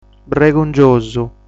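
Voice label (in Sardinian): "Bregungiosu", Sardinian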